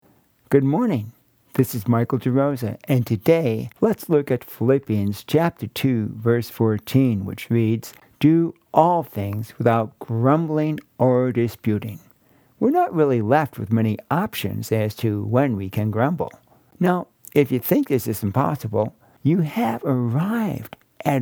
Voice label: Radio Sermonette; Philippians